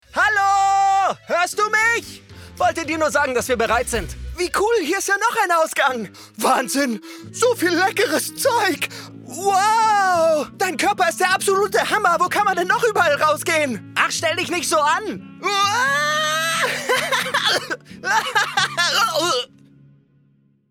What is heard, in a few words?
Anime